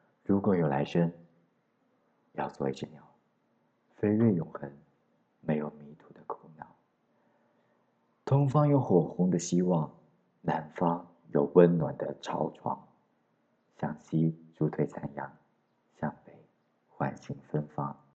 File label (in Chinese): 情感